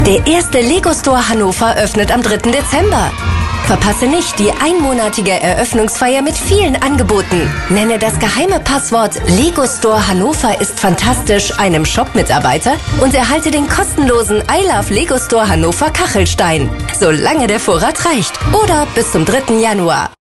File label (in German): lego store hannover werbung